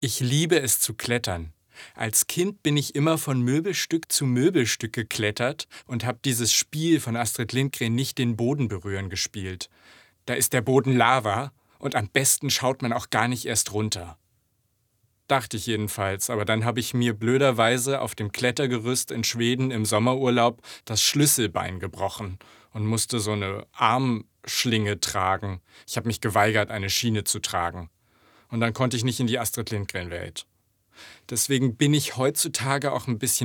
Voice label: Natuerliche Sprechstimme

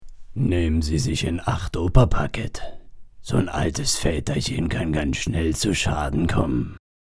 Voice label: Boesewicht

